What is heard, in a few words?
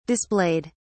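"displayed", British English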